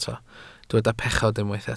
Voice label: "pechod", Welsh